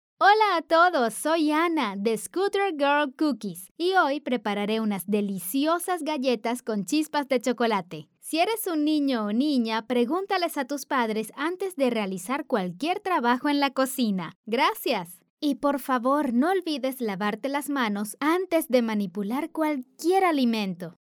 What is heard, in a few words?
Cookie Recipe in Spanish, latin american neutral spanish